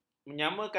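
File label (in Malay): "menyamakan", Malay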